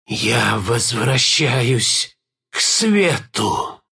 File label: death ru; Dota 2